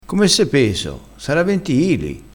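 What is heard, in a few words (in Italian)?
"peso", dialettale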